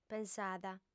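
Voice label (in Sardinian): "pensada", female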